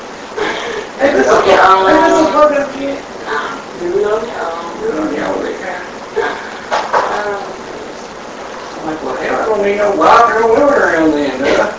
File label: Unexplained Male Voice (Do it on your own) Amplified